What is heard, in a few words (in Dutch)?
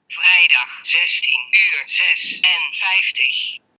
Talks dutch digital